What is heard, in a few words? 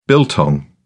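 "biltong", English, uk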